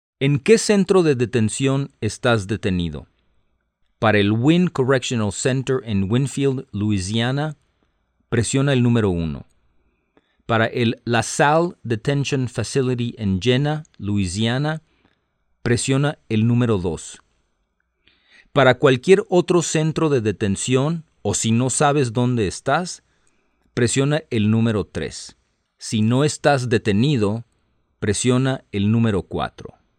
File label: Spanish, hotline